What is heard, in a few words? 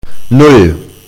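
"zero", German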